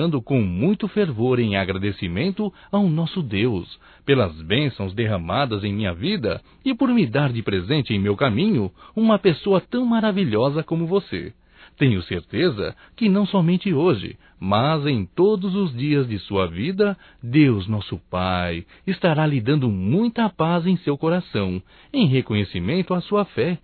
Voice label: Aniversário Sogro Evangélico Masculino; Telemensagens 2017

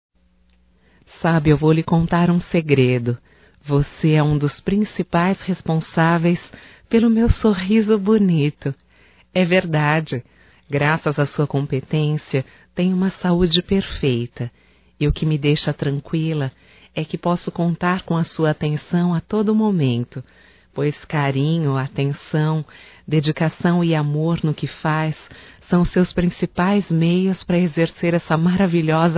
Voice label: HOMENAGEM AO DENTISTA (F), Só Voz; Telemensagens; HOMENAGENS

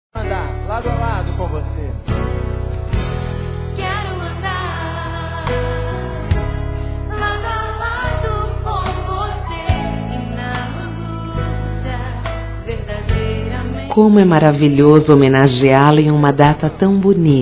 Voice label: EVANGÉLICO, Telemensagens, Dia dos Pais, fem; Telemensagens 2017

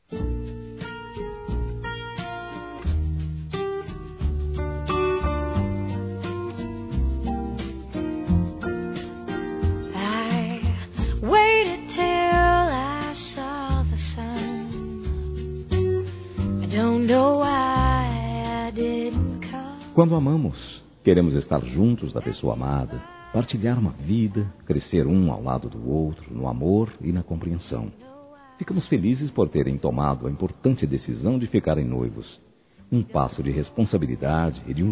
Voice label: Parabéns pelo Noivado Masculino, Telemensagens; Telemensagens 2017

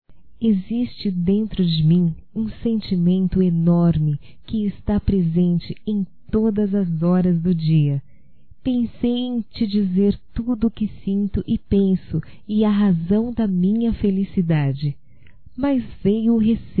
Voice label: DECLARAÇAO(F), Românticas, fem

